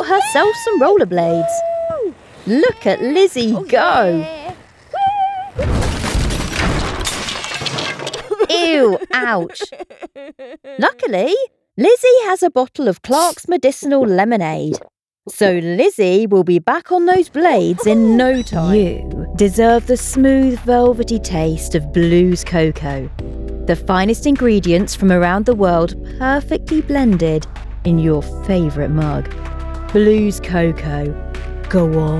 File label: Commercial